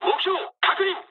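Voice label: near waypoint mood med, speech japanese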